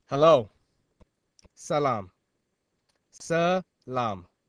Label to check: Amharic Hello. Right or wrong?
Right